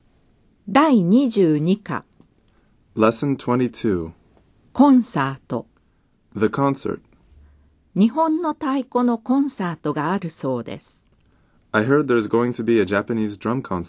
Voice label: Dialog